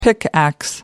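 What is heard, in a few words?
"pickax", British English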